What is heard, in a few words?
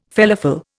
"felafel", Indian